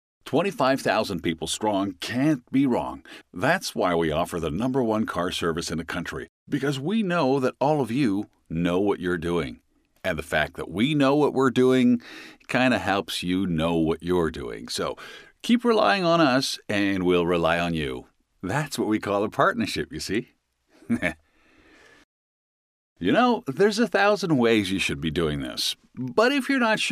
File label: casual upbeat